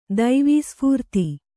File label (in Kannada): "ದೈವೀಸ್ಫೂರ್ತಿ", kannada